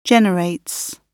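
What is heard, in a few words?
"generates", British English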